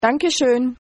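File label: "thanks", German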